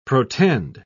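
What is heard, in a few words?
"protend", British English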